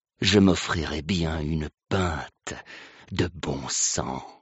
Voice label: french; vladimir